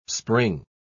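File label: "spring", English word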